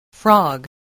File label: "frog", spelling